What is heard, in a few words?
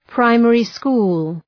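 "primary school", English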